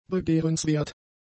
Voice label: "begehrenswert", German; adjectives